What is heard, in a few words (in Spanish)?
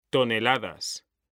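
"toneladas", Spanish; mekanta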